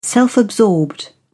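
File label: "self-absorbed", British English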